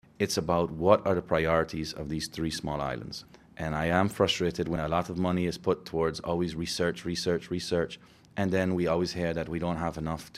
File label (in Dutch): met voiceover